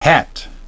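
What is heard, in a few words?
"hat", English pronunciation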